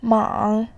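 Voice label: "mang", Mandarin, tone 3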